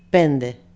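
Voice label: "pende", female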